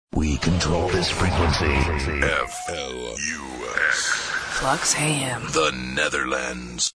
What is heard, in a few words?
Jingle; Flux Fm; Audio Fragmenten; Arkan Radio 2